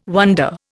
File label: "wonder", Indian